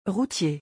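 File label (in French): "routier", French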